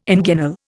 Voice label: "inguinal", Indian

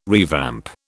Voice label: "revamp", British

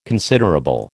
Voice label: "considerable", American

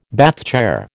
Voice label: "Bath chair", American

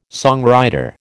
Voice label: "songwriter", American

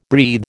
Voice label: "breathe", American

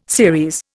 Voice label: "series", Indian

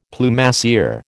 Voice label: "Plumassier", American

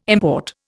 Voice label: "import", Indian

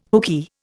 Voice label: "hookey", Indian

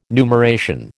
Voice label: "numeration", American

